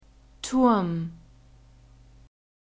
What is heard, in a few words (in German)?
"turm", German; masculine